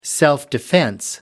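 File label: "self-defense", British English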